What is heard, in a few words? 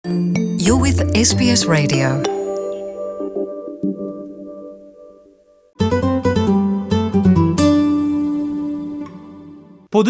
malayalam; Tax Talk: Are you being paid the right amount of superannuation?; SBS Malayalam